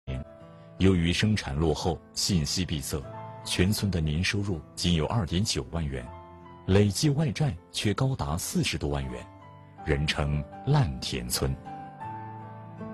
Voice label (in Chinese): 男 宣传片; CCTV7聚焦三农